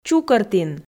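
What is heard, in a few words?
"чукӧртін" Komi, female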